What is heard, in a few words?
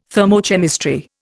"thermochemistry", Indian